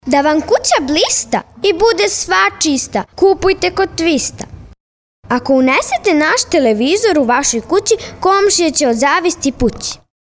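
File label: Serbian, 11 year old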